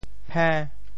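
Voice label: "phe~5", Teochew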